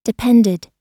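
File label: "depended", US English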